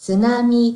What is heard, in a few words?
"tsunami" Japanese